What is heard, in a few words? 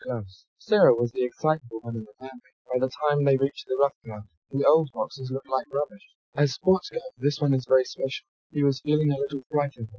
male inst src; SiSEC11; underdetermined